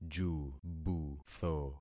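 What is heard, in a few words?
"jubutho", concatenated syllables; Affixing experiment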